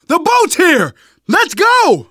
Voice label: arrive boat initial, survivor voice; Left 4 Dead 2; left4dead2 dlc3